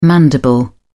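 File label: "mandible", British English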